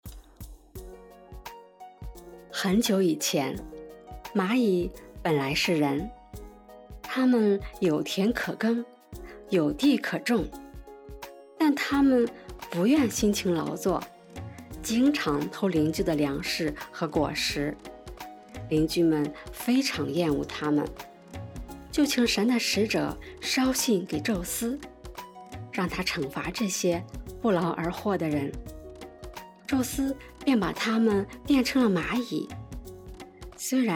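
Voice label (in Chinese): 睡前故事缩混; 睡前故事蚂蚁缩混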